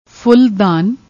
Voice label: "fulldaan", Punjabi